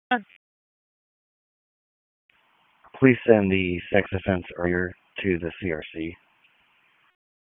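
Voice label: Raleigh Police Northeast District Dispatch, p25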